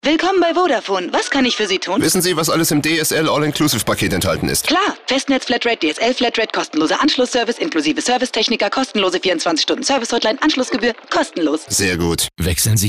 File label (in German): Sprecherin; WERBUNG; VODAFONE: Service Check